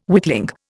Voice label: "witling", Indian

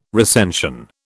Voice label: "recension", British

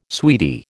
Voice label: "sweetie", American

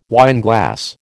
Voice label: "wineglass", American

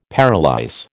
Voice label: "paralyse", American

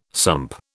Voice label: "sump", British

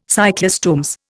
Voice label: "cyclostomes", Indian

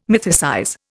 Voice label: "mythicize", Indian

